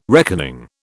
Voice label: "reckoning", British